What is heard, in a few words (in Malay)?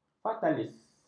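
"fatalis", Malay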